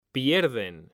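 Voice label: "pierden", Spanish, male; mekanta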